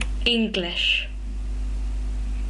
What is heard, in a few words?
"english" English